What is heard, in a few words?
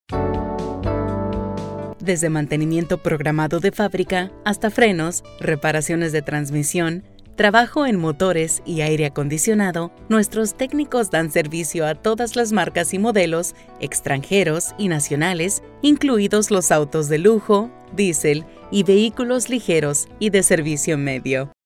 with music